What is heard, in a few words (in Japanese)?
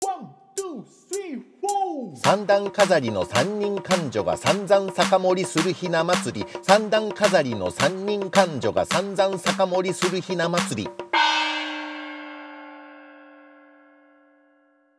早口言葉リズム（中級編）